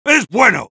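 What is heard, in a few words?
good job, Spanish; Heavy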